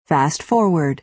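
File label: "fast-forward", American English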